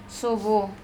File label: "sobo" bad smell, andamanese